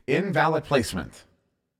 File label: Invalid Placement, CTF Announcer